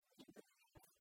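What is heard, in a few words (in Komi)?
ми нывъяс, Komi, female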